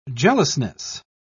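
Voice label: "jealousness", British English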